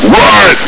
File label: "right!", Combine soldier; hl2